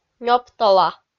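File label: "нёптола" Komi-Zyrian, female; kpv audio dict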